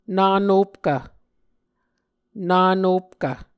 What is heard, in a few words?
"nanopkv", Muscogee